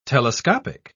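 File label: "telescopic", British English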